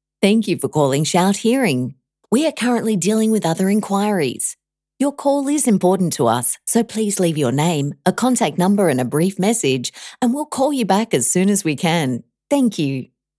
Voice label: Business Voicemail